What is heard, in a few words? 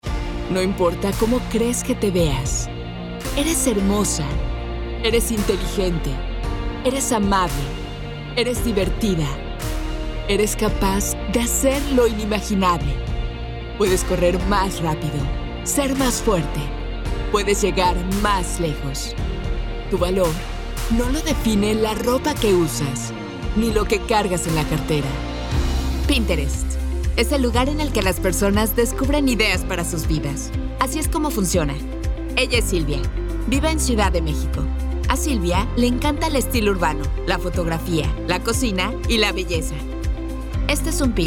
female spanish mexican corporate compilation; voices; devine voices